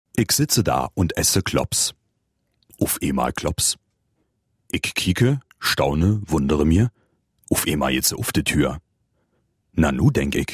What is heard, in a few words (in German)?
berlinerisch